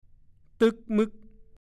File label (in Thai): "ตึ้กมึ่ก", ภาษาโคราช; นั่งหรือยืนทื่อ ๆ